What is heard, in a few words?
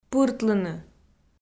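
"пыртлыны", Komi, female; kpv audio dict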